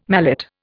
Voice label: "mallet", Indian